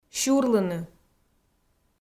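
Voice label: "сюрлыны" Komi, female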